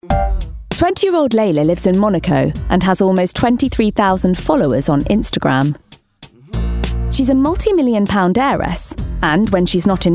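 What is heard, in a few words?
DOCUMENTARY; Rich Kids Instagram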